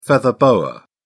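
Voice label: "feather boa", British English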